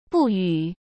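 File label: "不予", Mandarin Chinese; hsk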